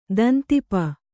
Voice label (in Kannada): "ದಂತಿಪ", Kannada